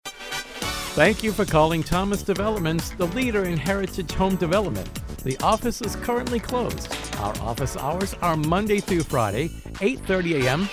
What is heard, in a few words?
TELEPHONE